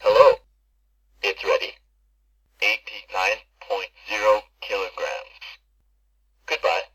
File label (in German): sprechende waage; myweigh xl 500